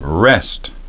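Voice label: "rest", English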